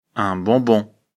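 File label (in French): "bonbon", French; afrikalan; lecture fr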